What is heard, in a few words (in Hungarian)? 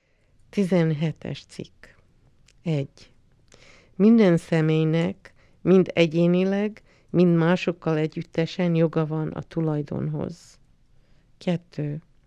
Magyar